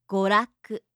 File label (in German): "goraku", japanische maedchennamen